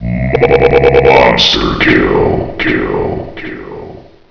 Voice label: score, announcer; hl1mp; XDM